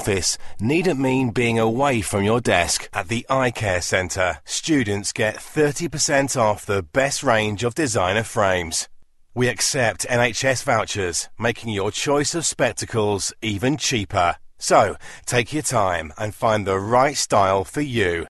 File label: telephone